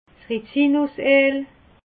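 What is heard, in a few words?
"rizinuseel", Alsatian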